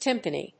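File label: "Tympany", English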